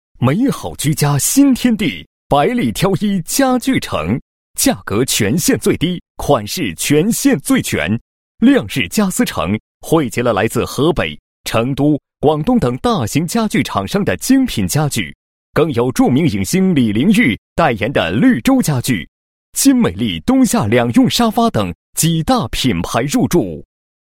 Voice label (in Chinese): 男 力度促销, 国语男声配音 磁性; 磁性专题; 亮日家私